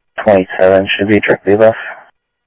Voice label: Sheriff scanner audio

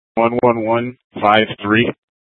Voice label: PD Dispatch

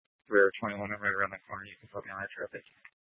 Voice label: Tempe PD, scanner; Rgnl Wireless Co-op (RWC)

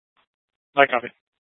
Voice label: Scottsdale Police, Simulcast

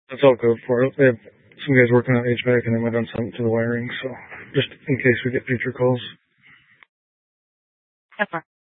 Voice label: Sheriffs Office, scanner

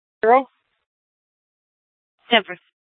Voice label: Police South Dispatch; Scttsdle

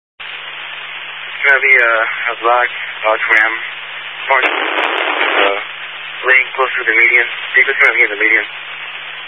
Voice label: Highway Patrol, Phoenix Metro West Area, scanner

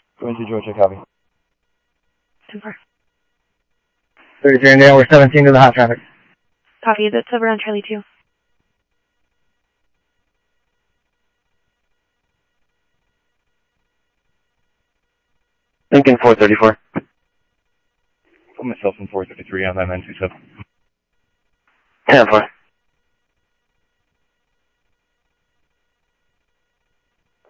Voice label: Phoenix PD, scanner audio; ThompsonPeakHigh; PHOENIX PD-200 A

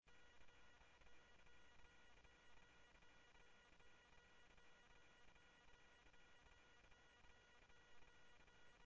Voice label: Tempe Police, scanner audio; SimulcastF-Tempe

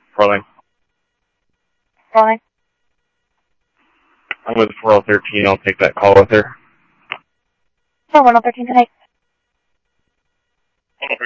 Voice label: Police, scanner audio trunk; H-Scottsdale

